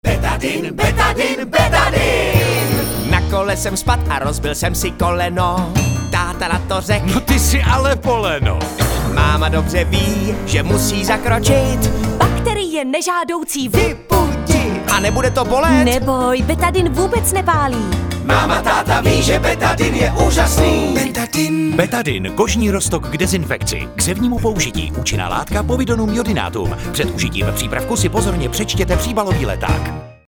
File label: radio spot